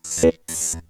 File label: Vocoder